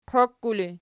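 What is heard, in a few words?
"qiokku" ergative, Archi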